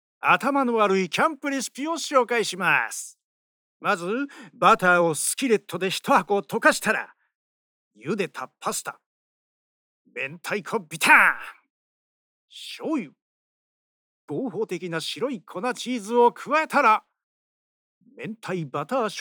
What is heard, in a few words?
male